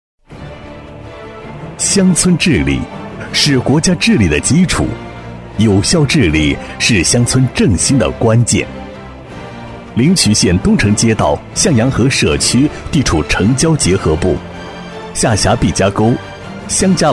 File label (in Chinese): 男 政府专题汇报 浑厚稳重; 《乡村振兴》